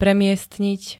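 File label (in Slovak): "premiestniť", Slovak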